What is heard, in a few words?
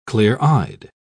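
"clear-eyed", English, uk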